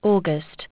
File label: aug, aussie prompts